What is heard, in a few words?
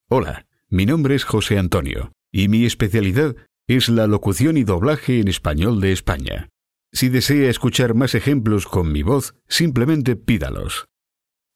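Spanish Spain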